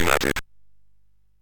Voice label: MOS6581R3, Electronic Speech Systems; Kennedy Approach